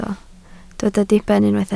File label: "diben", Welsh